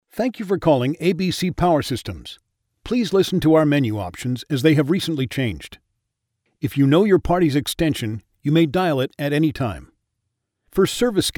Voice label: english canadian ivr